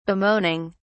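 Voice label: "bemoaning", British English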